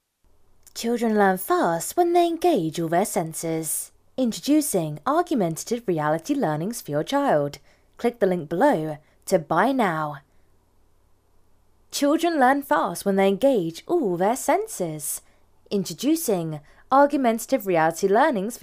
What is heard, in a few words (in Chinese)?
外籍女英 外语 英式英语 干音